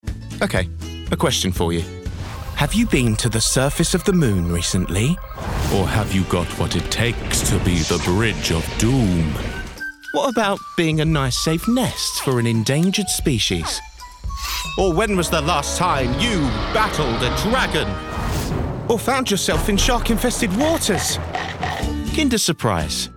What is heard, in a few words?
male English commercial; kinder